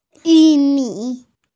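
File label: "yu4 mi3", Mandarin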